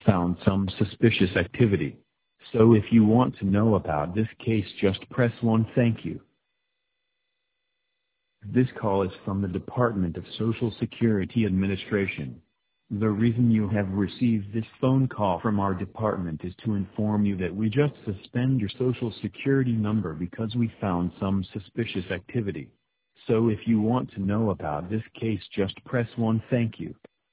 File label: voicemail